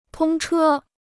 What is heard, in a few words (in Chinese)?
"通车", Chinese